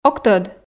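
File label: "ог тӧд", Komi, female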